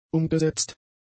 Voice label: "umgesetzt", German; adjectives